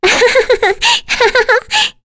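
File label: Vox Happy, English; Kirze; kingsraid; voices heroes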